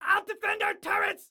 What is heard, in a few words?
Self Defend Turrets, Excited Guy